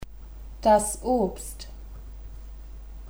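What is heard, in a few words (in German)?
"Obst", German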